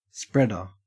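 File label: "spreader", Australian English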